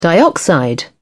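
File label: "dioxide", British English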